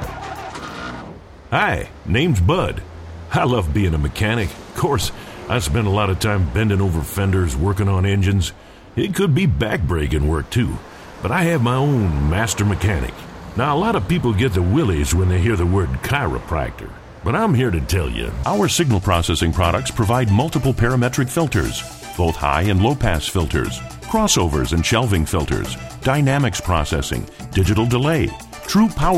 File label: Commercial, Male voices